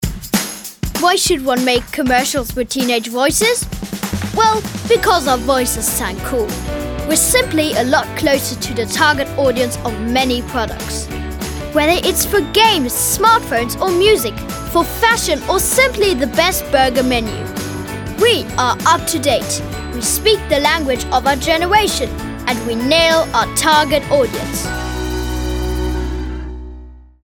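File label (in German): Werbung Teenagerstimmen English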